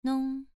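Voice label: "nong", Mandarin Chinese syllable, second tone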